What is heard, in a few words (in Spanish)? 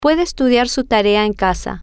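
Puede Estudiar Tarea Casa, spanish, female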